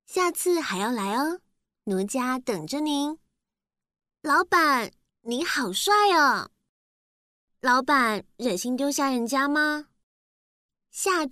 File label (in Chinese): 女 游戏CG 港台腔嗲嗲 棋牌语音; 甜美亲切